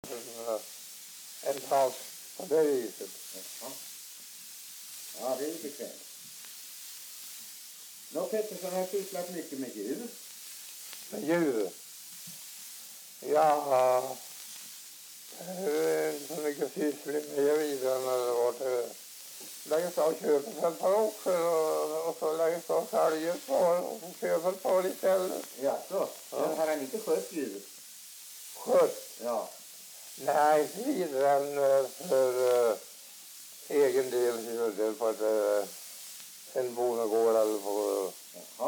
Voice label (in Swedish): dialekter; Folkminnen